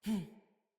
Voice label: Jump Female, Battle Barks; Envoy